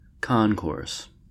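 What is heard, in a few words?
"concourse", American English; pronunciations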